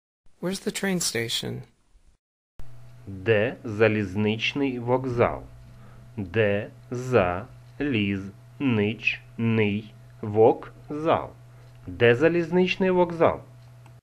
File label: UKR Where is the train station